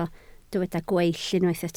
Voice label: "gweill", Welsh